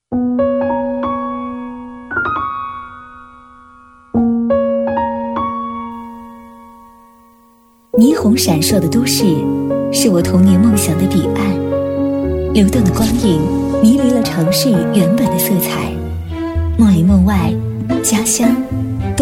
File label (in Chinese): 女 城市宣传片; 钟灵毓秀山水资阳